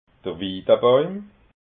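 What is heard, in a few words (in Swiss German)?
"wiidabàuim", Alsatian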